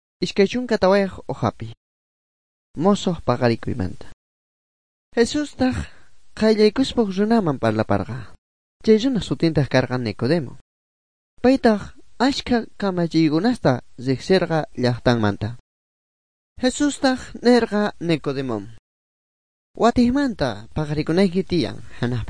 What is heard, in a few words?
good news quechua bolivian sucre; bible